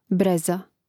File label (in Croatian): "breza", Hrvatski